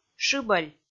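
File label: "шыбӧль", Komi, female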